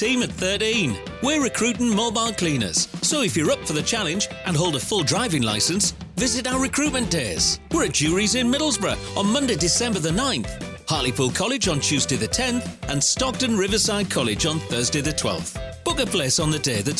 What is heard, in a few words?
male English North East accent compilation; english northern accents